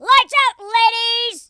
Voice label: bye bye, Drill Sergeant; speech

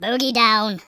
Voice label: "fatality", speech; Biggles

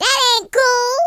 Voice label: oh dear, Gangsta; speech

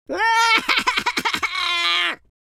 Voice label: lose loop, London Cabbie; speech

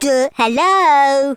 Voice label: "hello", Teenage Angst; speech

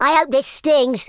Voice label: "fireball", Teenage Angst speech

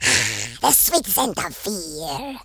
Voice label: brilliant, Horror

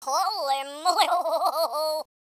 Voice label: scared, speech, US Sports

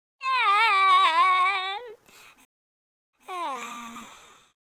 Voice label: sad

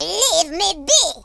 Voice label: go away, speech, French

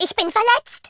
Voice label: ohdear, German

